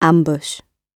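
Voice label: "ambush", British English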